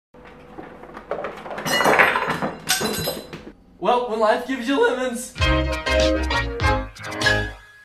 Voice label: well when life gives you lemons funny cereal vine